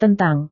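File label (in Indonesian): "tentang", Indonesian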